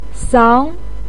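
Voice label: "sang", Mandarin Chinese, second tone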